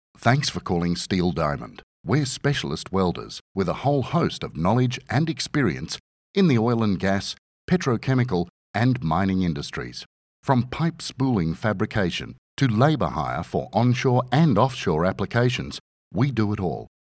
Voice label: On Hold